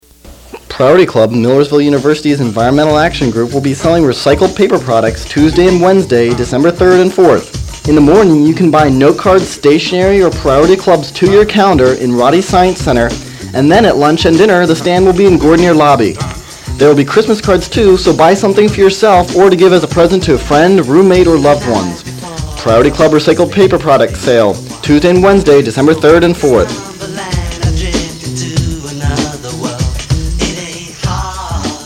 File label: Spot; Paper Sale Priority Club